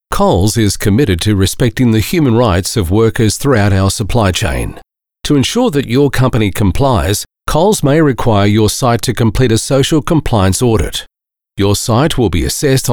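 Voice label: eLearning, male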